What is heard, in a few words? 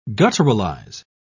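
"gutturalize", British English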